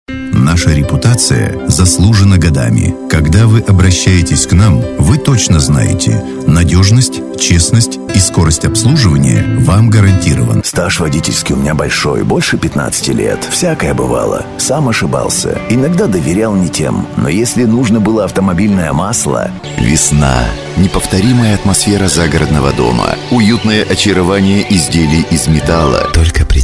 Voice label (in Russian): muzhskie golosa, diktory